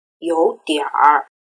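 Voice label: "youdianr" Chinese word; erhua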